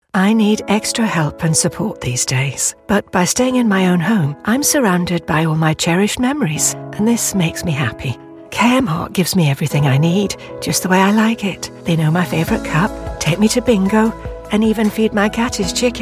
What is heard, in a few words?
local radio ad